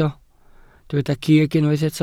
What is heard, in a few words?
"cig", welsh